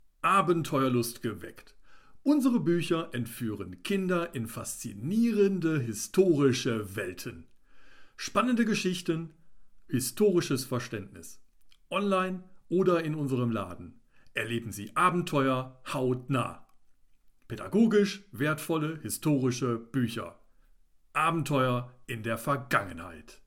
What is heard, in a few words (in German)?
Werbespot Buchladen Abenteuer dynamisch begeisternd, Stil: Begeisternd, dynamisch, einladend; Abenteuer-Kinderbuchladen(Radiospot)